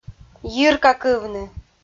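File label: "йиркакывны" Komi, female; audio dict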